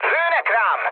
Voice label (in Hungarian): aircraft damaged mood med, hun; War Thunder